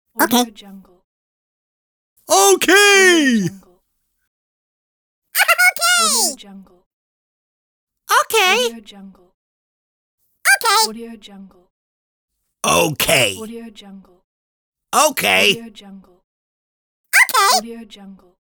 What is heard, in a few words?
cartoon voices comical okay pack